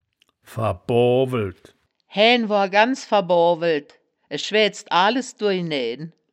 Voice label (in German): "verboo weld", wittlich